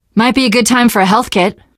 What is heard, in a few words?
player suggest health, survivor voice teen girl